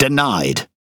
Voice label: Speech Quick Commands No Way, EN GB; World Of Warships